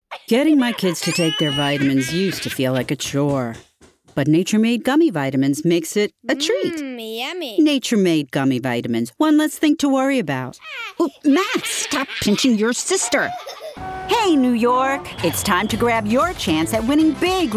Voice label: COMMERCIAL RADIO AD